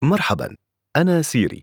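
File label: Arabic, Saudi Arabia; ar-SA; iOS 26 Sounds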